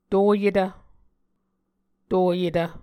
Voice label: "toyetv", Muscogee

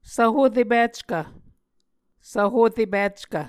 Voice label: "svhorepickv", Muscogee

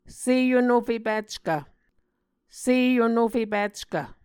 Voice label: "seyonofepickv", Muscogee

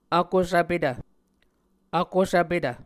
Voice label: "vkosvpetv", muscogee

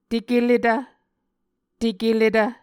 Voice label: "tekeletv", Muscogee

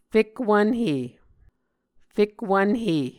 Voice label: "fekwvnhe", muscogee

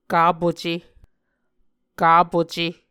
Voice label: "kapuce", Muscogee

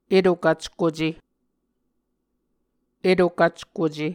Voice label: "eto-kvckuce", muscogee; Mvskoke Words with Voicing Consonants; A Dictionary of Creek/Muskogee; SIL International; Onvkv Ohhvyayices

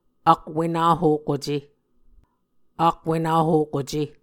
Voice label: "akwenahokuce", muscogee; Mvskoke Words with Voicing Consonants; A Dictionary of Creek/Muskogee; SIL International; Onvkv Ohhvyayices

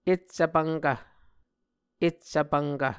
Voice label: "eccv pvnkv", Muscogee; Onvkv Ohhvyayices; A Dictionary of Creek/Muskogee; SIL International

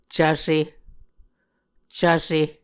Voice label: "cvse", muscogee

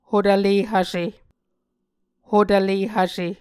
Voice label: "hotvle hvse", Muscogee

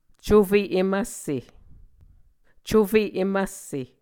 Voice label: "cufe em vsse", muscogee

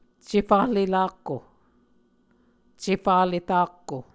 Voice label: "cefahle-rakko", muscogee

